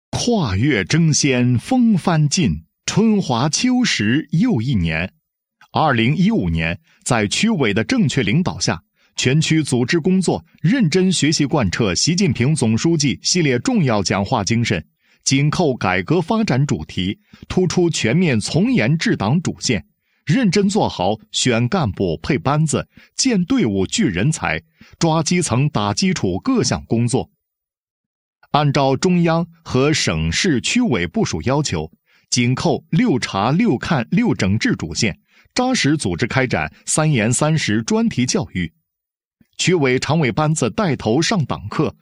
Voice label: 男 工作总结, 配音; 全区组织工作2015年总结

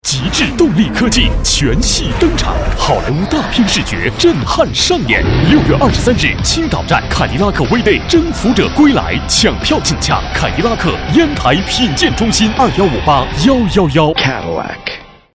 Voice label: 男 广告 动感时尚, 国语男声配音

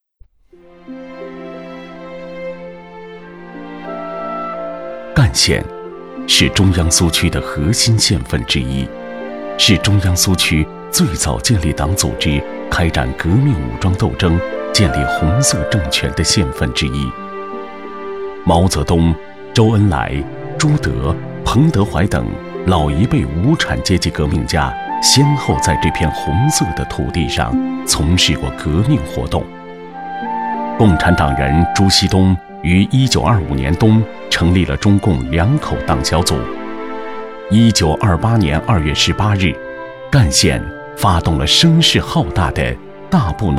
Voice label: 男 红色经典; 央视大气专题; 赣县

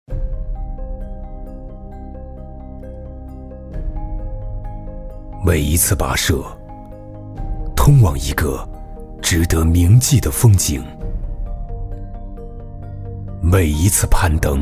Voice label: 男 专题 大气质感

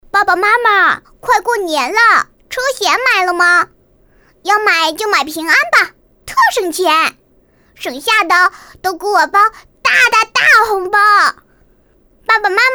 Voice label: 车险, 国语女声配音, 童声

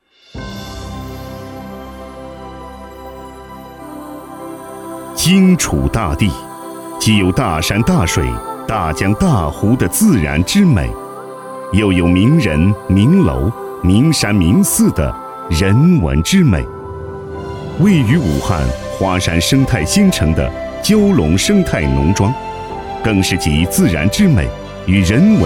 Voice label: 男, 企业宣传; 武汉蛟龙生态农庄宣传配音

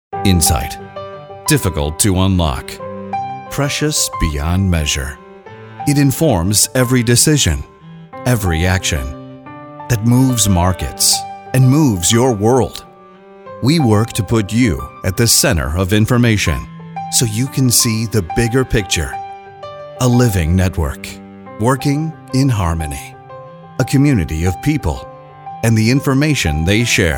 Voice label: 外籍男, 商业广告, Commercial, Voiceover; CoStar

